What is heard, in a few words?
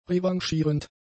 "revanchierend", German; adjectives